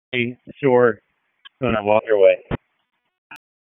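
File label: FIRE Operations, p25; FIRE OPS 4; wake p25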